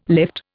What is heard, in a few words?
"lift", Indian